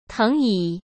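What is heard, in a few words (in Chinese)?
"藤椅", Mandarin Chinese; hsk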